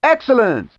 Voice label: "Excellent", Yamaha DD-35